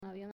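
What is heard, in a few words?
"Avion", Spanish, female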